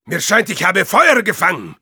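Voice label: Spy auto on fire, German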